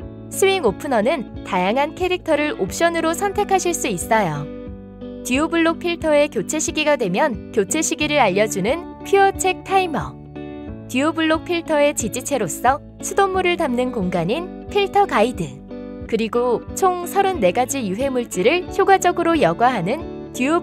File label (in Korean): 홍보 정수기; 퓨리얼정수기